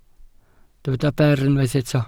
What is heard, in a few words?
"ber", Welsh